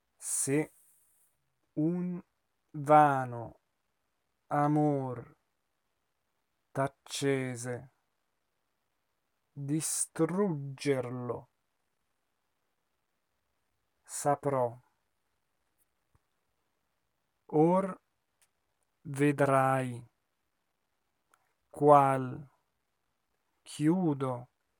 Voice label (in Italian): monotono; Otello; Ah! vieni nel tuo sangue